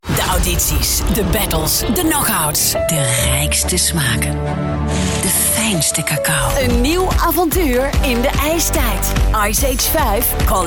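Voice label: female, Dutch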